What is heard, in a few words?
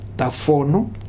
"Tafonu", futuna aniwa